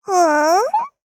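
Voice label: Vox Deny, voices, Japanese; Taily; kingsraid audio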